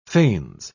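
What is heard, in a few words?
"fains", British English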